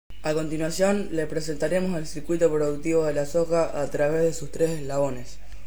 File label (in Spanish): Nota de voz presentacion